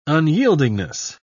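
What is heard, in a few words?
"unyieldingness", English, British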